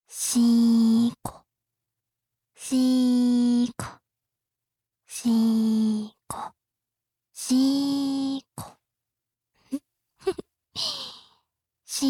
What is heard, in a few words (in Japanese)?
自慰支援(遅):しこしこの掛け声で自慰を促す; 実用ボイス抜粋集